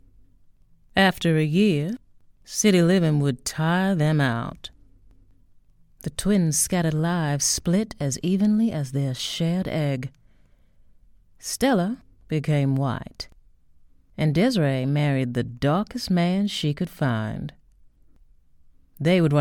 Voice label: US